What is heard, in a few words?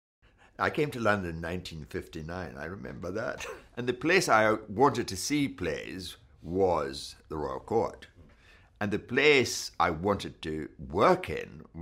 Natural Read